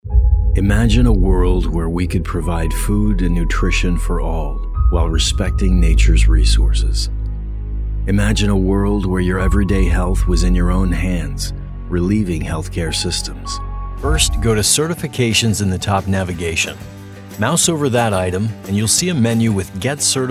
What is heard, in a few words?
NARRATION